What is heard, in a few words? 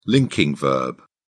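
"linking verb", British English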